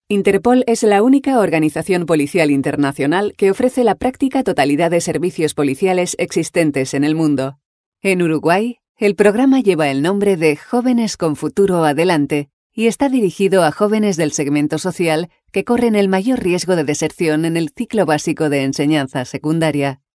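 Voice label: Spanish informative, female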